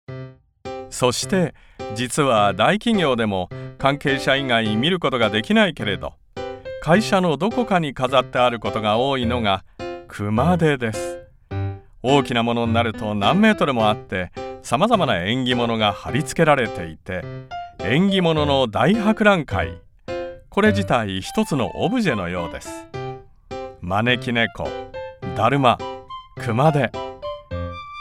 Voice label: japanese tv shows

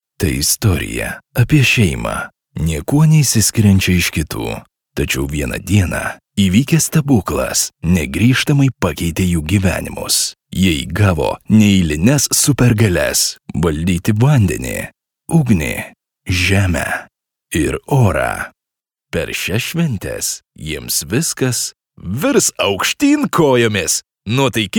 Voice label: lithuanian movie trailers

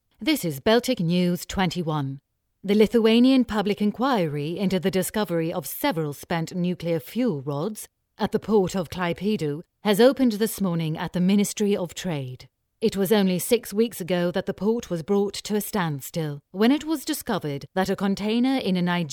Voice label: english british promos

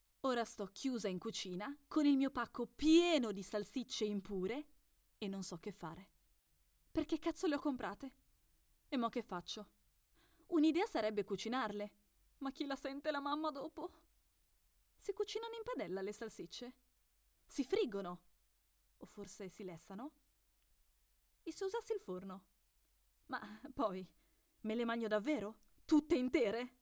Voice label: italian audiobooks

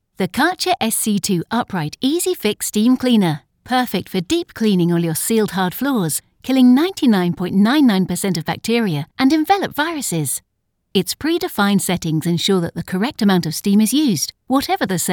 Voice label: english british promos

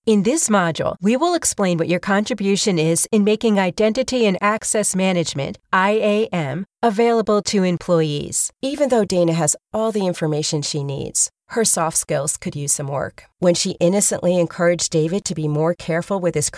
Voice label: english american e learning

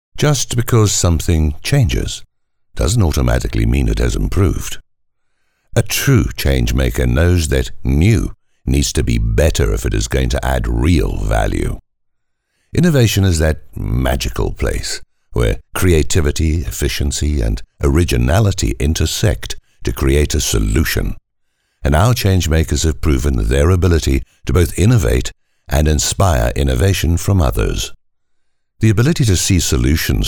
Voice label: english south african narration

